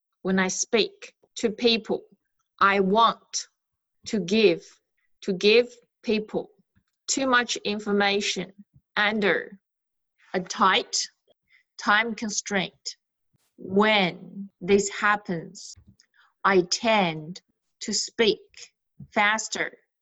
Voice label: private Chinese client after